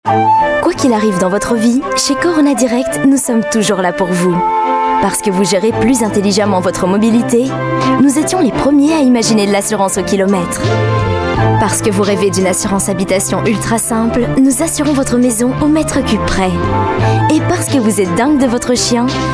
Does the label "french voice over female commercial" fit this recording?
Yes